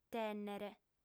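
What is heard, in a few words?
"tènnere", Sardinian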